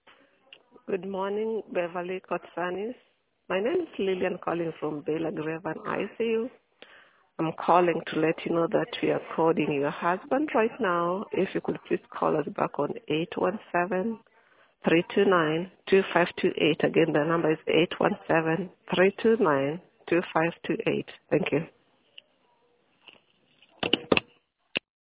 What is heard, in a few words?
voicemail